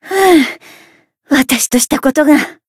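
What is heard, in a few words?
Voice win barely Japanese; ashuthia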